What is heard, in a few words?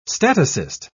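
"statocyst", British English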